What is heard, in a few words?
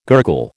"gurgle", American